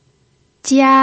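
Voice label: "jia" first tone, pinyin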